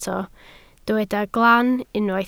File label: "glan", Welsh